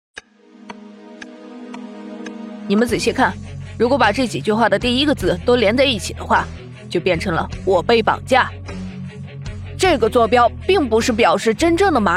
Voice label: 儿童故事 片花; 疯狂冒险队片花

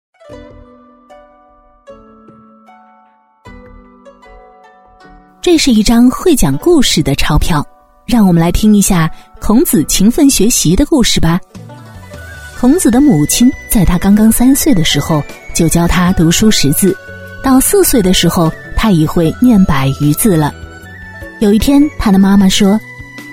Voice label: 故事配音; 孔子

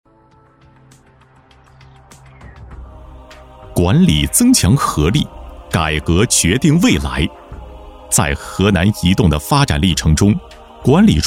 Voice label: 专题片大气; 管理创新豫见未来

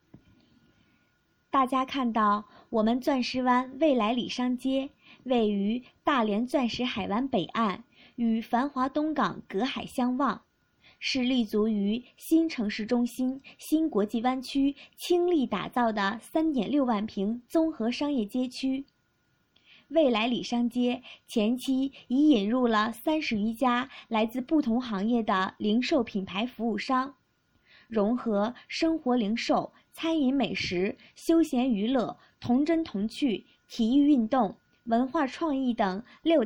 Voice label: 招商广告

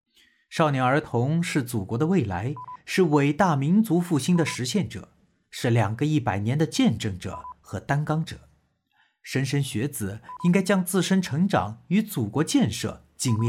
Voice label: 旁白解说号男声

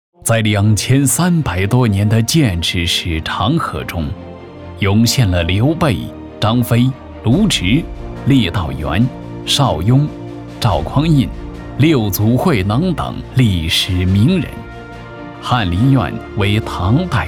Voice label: 学校宣传片厚重大气

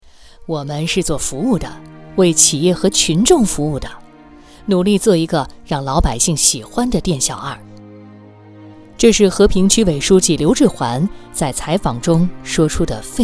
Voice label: 专题温柔知性

